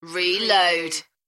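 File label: British female Reload; beanstalk audio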